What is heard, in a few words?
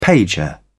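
"pager", British English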